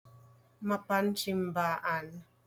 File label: Ilocano